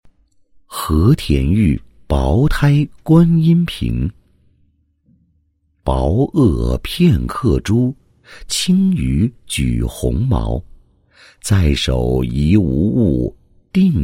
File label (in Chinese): 专题 产品 和田玉薄胎观音瓶 沉稳, 男